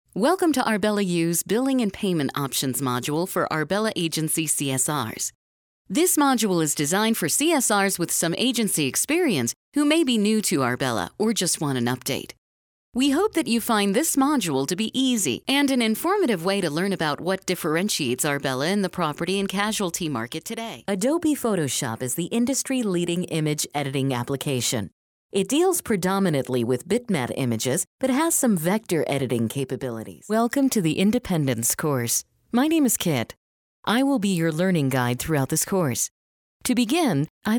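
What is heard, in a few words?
narration, female voice talent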